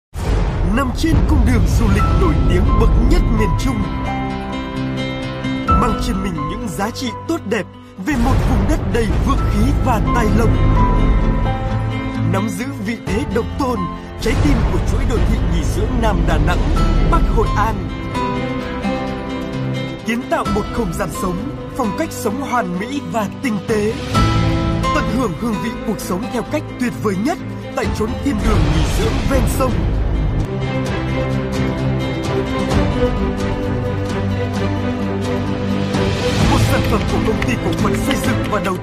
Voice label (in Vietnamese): Tvc Du an Voice Nam giong bac; TVC dự án (The Royal River)